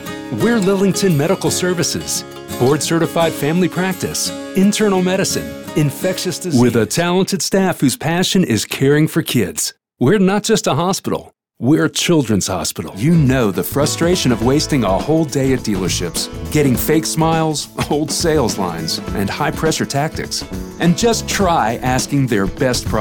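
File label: US, voice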